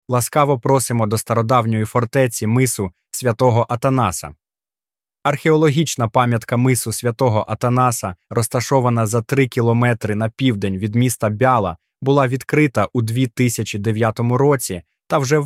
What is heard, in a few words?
Fortress Intro Ukranian; музейний аудіогід